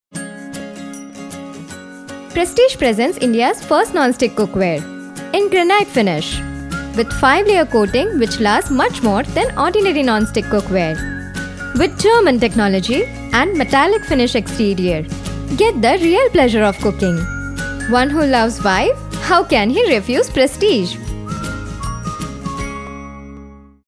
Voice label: promo eng; prestige

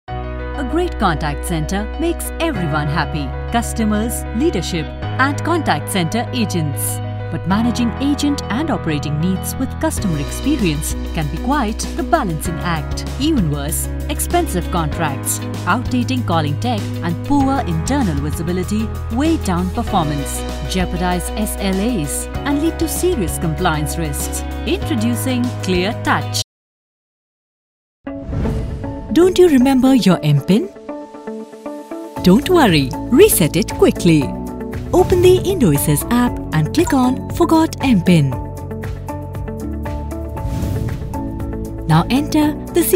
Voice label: Explainer English

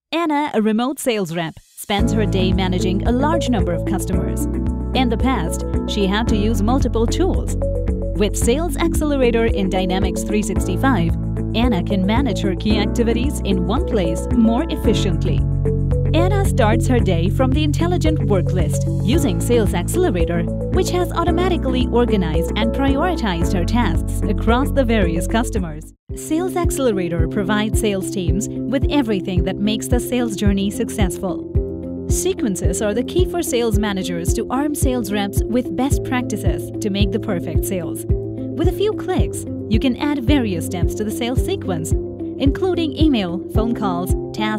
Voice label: US eng

